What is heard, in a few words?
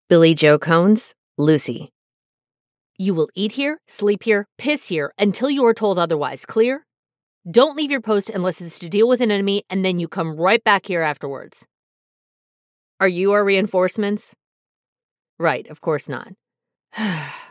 Female